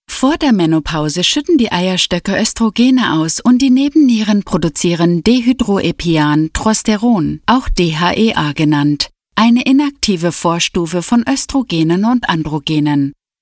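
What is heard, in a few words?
medical German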